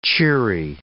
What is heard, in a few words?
"cheery", English